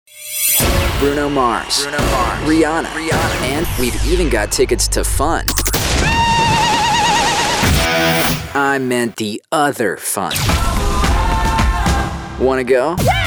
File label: men radio imaging